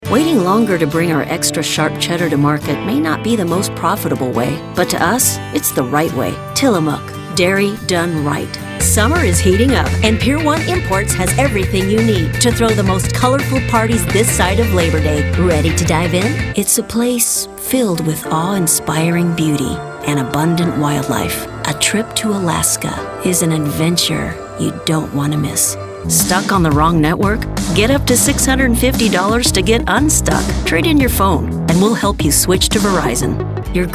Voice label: Commercial